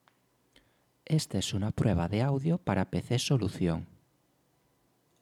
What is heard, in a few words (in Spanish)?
Prueba Seiren sin filtro, microfono; razer seiren elite